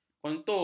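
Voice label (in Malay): "kontur", Malay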